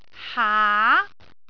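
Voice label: "haa2", Cantonese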